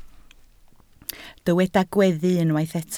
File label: "gweddu", Welsh